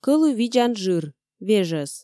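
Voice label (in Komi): "кӧлуй видзан жыр, вежӧс", Komi, female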